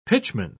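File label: "pitchman", British English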